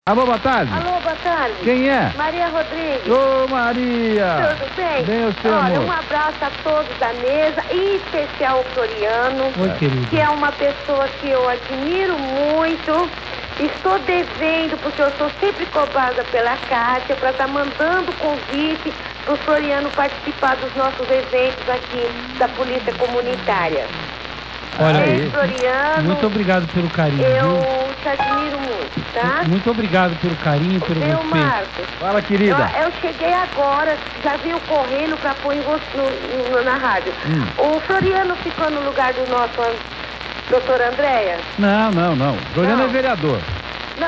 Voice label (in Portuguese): Microfone Aberto; Trianon; Andrea Matarazzo